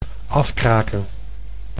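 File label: "afkraken", Dutch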